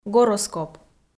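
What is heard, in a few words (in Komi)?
"гороскоп", Komi, female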